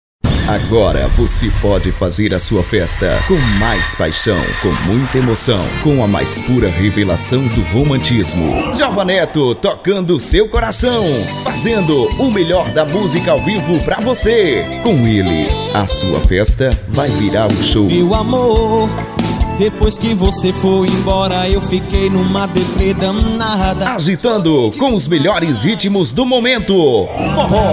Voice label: spot divulgacao

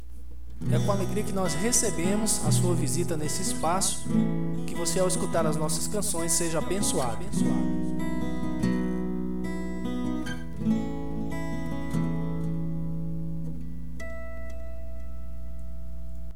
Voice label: mensagem inicial

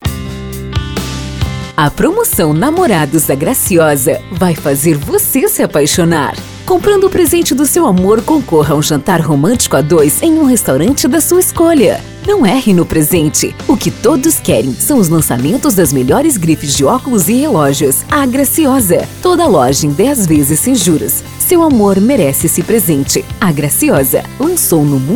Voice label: VOZ IMPACTO GRAVE vinheta de promocao spot chamda comercial; para o dia dos namorados; propaganda

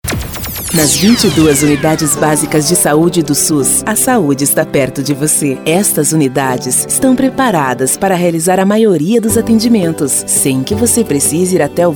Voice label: locutora jovem coloquial padrão e animado

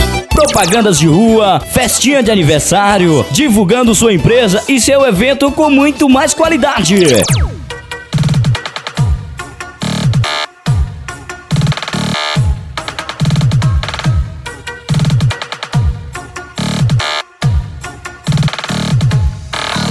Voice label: locutor publicidades

